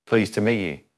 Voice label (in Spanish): Voice Acting es M